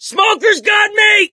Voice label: choke, survivor voice mechanic; source engine; left 4 dead 2